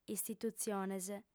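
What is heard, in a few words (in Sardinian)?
"istitutziones", Sardinian, female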